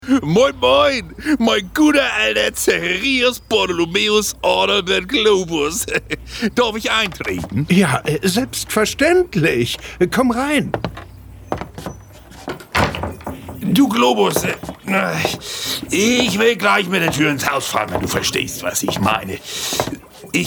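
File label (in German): Käptn Klönschnack mit Herz aber büschen tüddelig, Hörspiel; Professor Globus, Hauptrolle: Käptn Klönschnack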